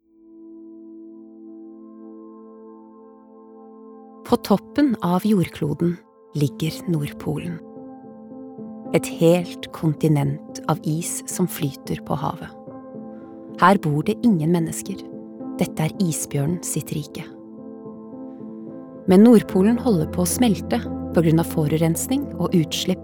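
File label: Norwegian dokumentar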